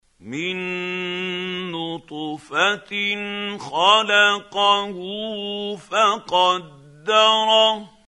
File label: quran, Hussary, tafseer; Abasa